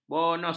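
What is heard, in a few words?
"bonus", Malay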